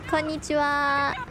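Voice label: "konnichiwaaaaaa", Japanese; IRyS JP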